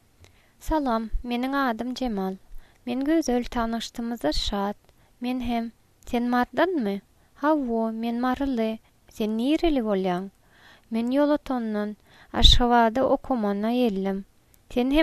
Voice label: greetings, turkmen

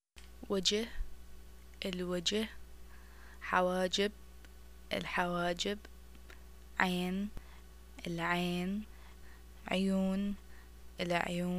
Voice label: face parts, arabic

